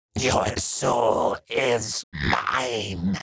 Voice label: Demon beast imp monster sigh your soul is mine